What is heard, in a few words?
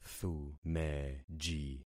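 "thumeji", concatenated syllables; Affixing experiment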